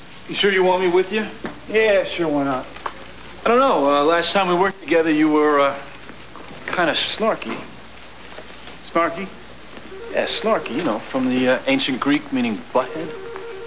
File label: snarky